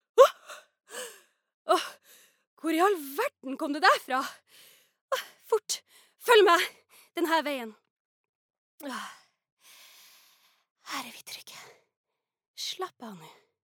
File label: no dubbing, norsk, kvinne